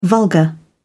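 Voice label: "vulgar", British English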